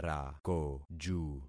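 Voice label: "rakoju", concatenated syllables; Affixing experiment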